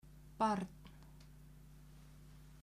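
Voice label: "barn", Icelandic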